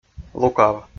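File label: "лукав", Komi, male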